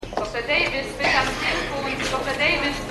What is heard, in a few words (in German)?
Krankenhausdurchsage